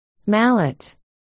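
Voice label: "mallet", spoken word, articulation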